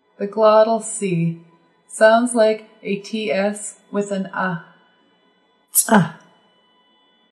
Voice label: glottle c